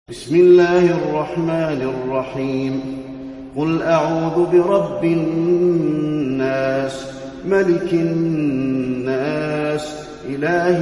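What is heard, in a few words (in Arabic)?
الناس, تلاوة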